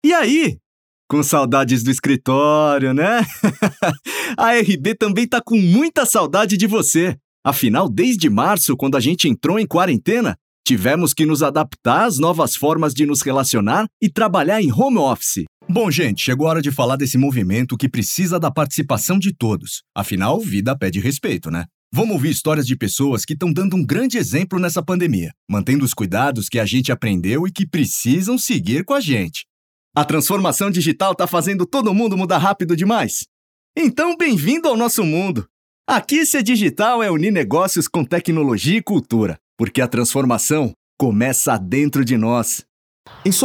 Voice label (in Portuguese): Coloquial